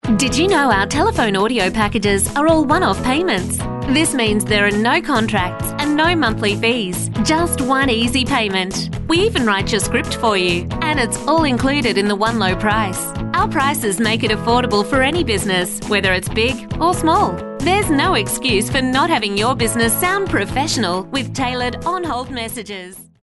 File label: on hold messages female